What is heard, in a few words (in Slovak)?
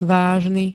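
"vážny", Slovak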